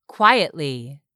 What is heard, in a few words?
"quietly", English; 3000 words